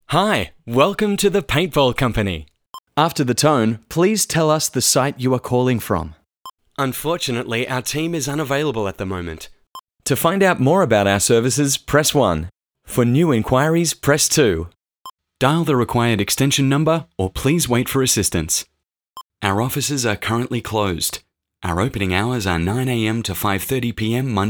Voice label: IVR